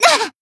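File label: damaged, Japanese; tsukiha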